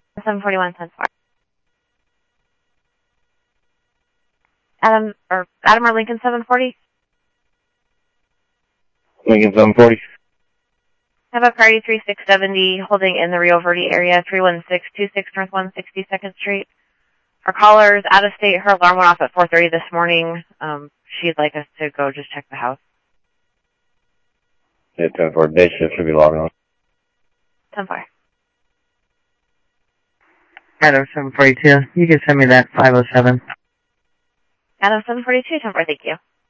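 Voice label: Sheriff East County, scanner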